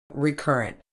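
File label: "recurrent", medical English, British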